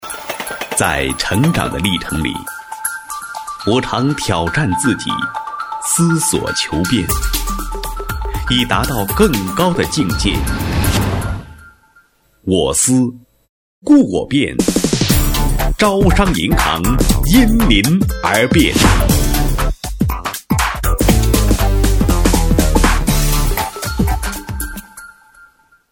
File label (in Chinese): 男 高音; 专题成品; 国语男声配音